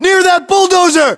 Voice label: landmark near bulldozer, english, us